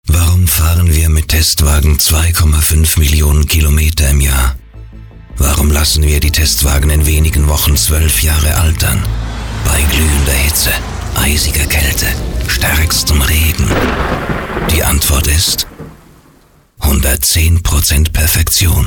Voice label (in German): Radiospot Hoch-dt Sprecher; Audi AMAG ZH